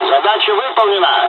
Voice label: dialogs russian; War Thunder